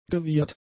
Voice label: "gewiehert", German; adjectives